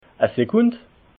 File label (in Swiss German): "sekund", alsace